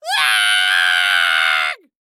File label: Cartoon Voice "Arrrgh" Annoying